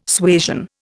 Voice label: "suasion", Indian